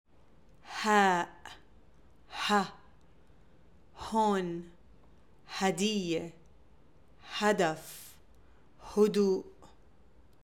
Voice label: Haaa, arabic alphabet